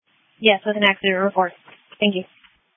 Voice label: Police Dispatch